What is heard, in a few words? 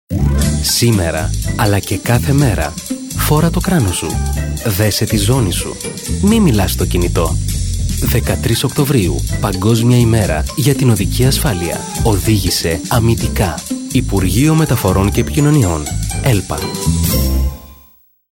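locutor griego greek voiceover, male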